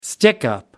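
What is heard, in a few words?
"stickup", British English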